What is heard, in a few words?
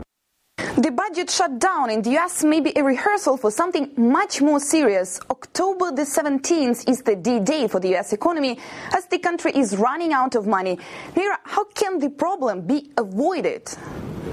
worst russian announcer